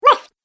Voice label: Cartoon Game Voice "Left"